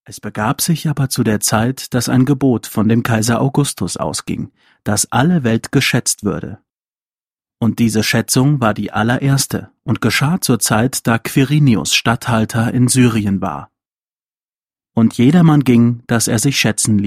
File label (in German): Lesung Lukas; Lukas 2, 1-3